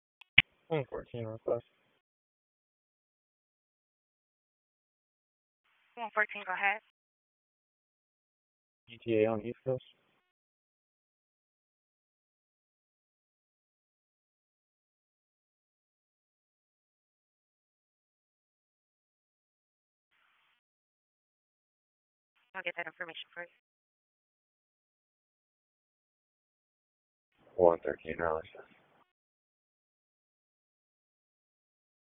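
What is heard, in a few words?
Police Dispatch, p25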